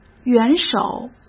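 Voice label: "元首" Mandarin Chinese; hsk; chinese-flashcards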